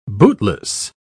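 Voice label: "bootless", British English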